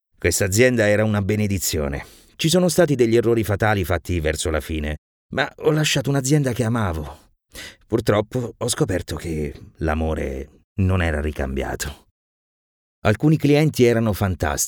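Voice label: Italian, male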